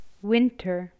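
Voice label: "Winter", English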